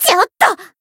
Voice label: damaged, jp; alma